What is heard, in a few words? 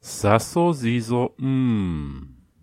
birthday, laala